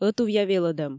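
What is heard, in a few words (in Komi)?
ӧтувъя велӧдӧм, Komi, female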